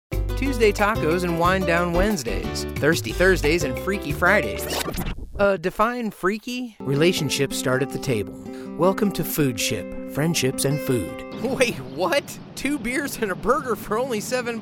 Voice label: Commercial